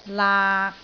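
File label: "laak6", Cantonese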